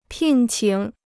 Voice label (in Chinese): "聘请", Chinese